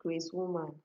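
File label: crasewoman, Naija, female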